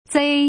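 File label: "zei", Mandarin Chinese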